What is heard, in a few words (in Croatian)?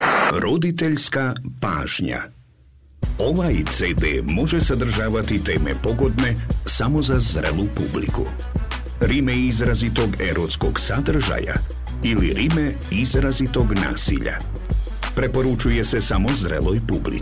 Dialog